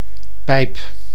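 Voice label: "pijp", Dutch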